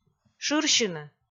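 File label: "шырсьыны", Komi, female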